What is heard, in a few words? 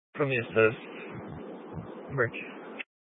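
Sheriff Dispatch, Scanner audio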